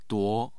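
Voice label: "duo2", Mandarin Chinese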